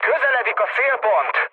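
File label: Hungarian near waypoint mood med, speech; War Thunder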